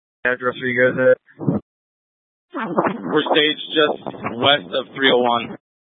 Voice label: Incident Tac, scanner; Incident Tac 1